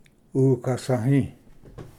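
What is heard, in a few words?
"ukasvhi", Cherokee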